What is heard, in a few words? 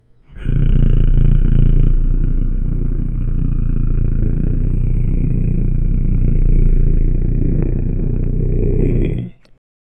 low soldier murmurs group; military